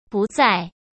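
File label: "不再", Mandarin Chinese; hsk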